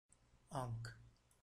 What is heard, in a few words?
"aank", Tamil